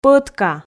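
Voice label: "пӧтка", Komi, female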